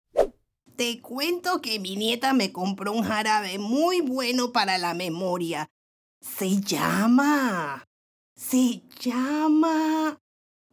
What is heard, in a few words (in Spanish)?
personajes acentos